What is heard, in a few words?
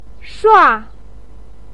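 "shua", Mandarin pinyin, fourth tone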